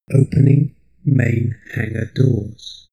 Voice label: male system status

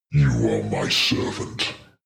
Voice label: demon dark voice thick speak you are my servant

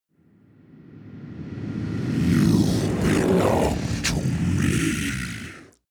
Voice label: demon dark voice reverse belong to me

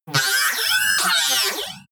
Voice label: robot speech

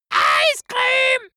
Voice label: dwarf scream ice cream german

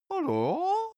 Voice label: cartoon male agree

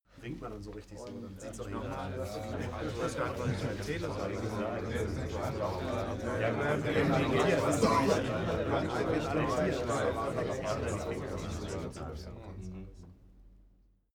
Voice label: male crowd chatting calmly short

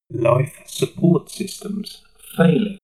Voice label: shuttle male system status

